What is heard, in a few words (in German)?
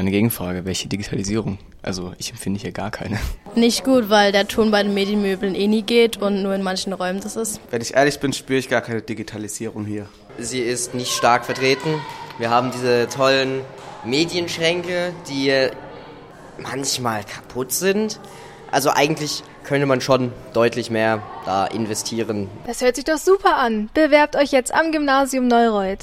Werbung; Gymnasium Neureut